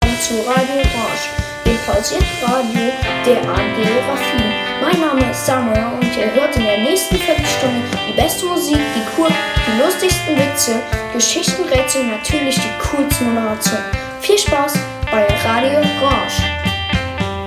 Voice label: jingle